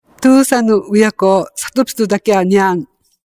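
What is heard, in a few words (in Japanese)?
Miyako Zeniki, しまくとぅば; トゥーサヌ ウヤクゥ サトゥビトゥダキャー; ニャーン。; 宮古伊良部島の言葉; 令和７年度の「黄金くがに言葉くとぅばカレンダー」; しまくとぅば普及センター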